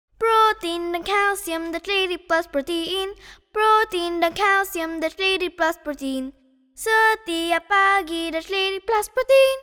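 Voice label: Malay COLD VOX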